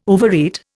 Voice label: "overeat", Indian